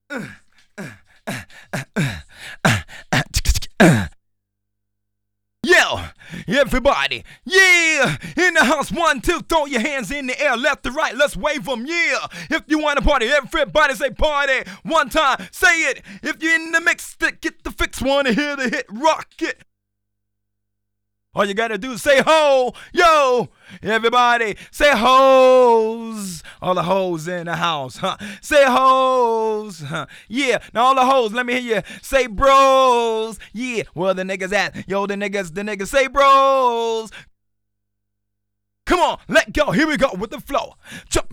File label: Heey Hoo, Rapsody Vocals; Masterbits CLIMAX 6